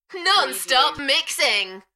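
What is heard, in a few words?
British female Non-stop mixing Enthusiastic; beanstalk audio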